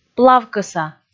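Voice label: "плавкӧса", Komi, female; audio dict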